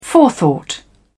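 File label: "forethought", British English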